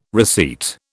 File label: "receipt", British